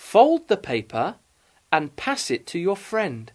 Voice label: "fold, pass", English

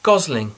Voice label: "gosling", English

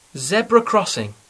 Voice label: "zebra crossing", English; ve meste

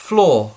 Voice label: "floor", English; skola; trida

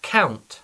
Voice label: "count", English; skola; skolni cinnosti

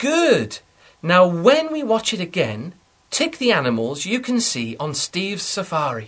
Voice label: "good", English

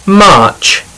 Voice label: "march", English

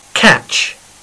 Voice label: "catch", English; sport a hry

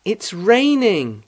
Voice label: "raining", English

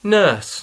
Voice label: "nurse", English

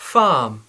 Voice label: "farm", English

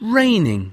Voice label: "raining", English

